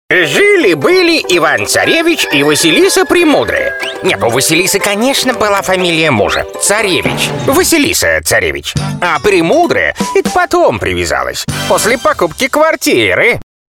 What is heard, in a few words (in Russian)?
skazochnik